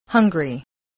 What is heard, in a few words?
"hungry", voice spelling